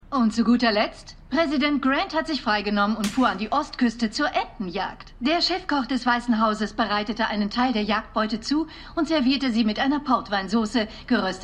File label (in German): Nachrichtensprecherin; Scandal